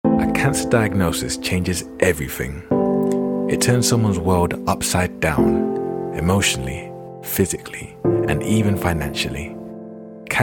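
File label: English UK, charity; GoLocalise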